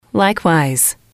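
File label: "likewise", English, female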